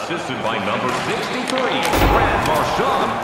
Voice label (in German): ingame sprecher ansage; National Hockey League 2019; gamesoundopedia